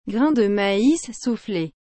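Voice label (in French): "grains de mais souffles", French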